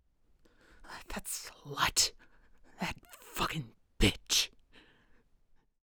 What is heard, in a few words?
Angry Mutterings